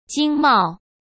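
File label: "经贸", Mandarin Chinese; chinese-flashcards; hsk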